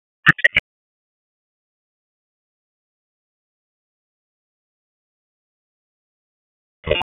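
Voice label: Wake Sheriff's Office Judicial, p25; WSO Judicial 1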